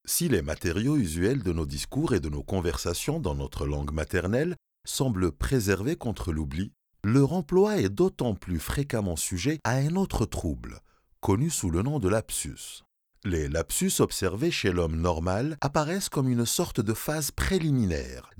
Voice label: french audiobooks

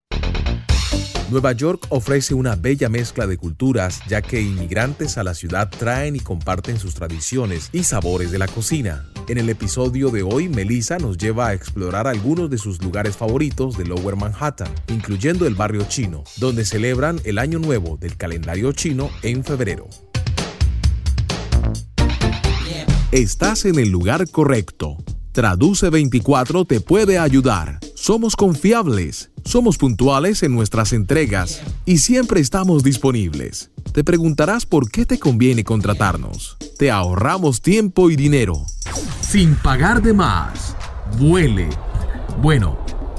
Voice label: spanish latin american promos

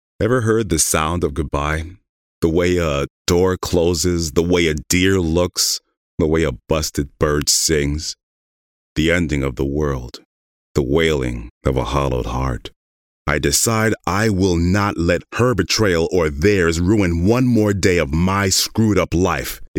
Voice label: english american audiobooks

